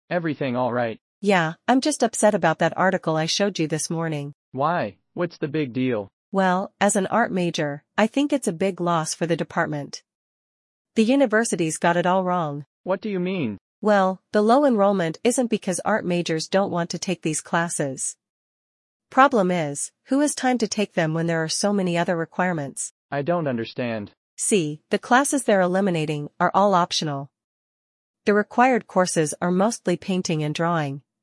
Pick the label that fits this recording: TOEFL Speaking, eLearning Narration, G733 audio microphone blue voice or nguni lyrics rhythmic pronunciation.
TOEFL Speaking